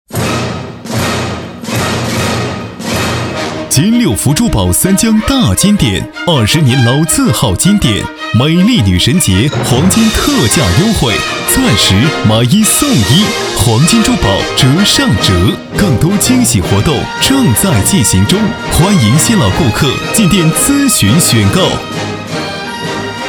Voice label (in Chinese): 男, 促销; 金六福珠宝